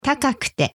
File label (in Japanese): "高くて", Japanese